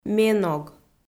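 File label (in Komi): "ме ног", Komi, female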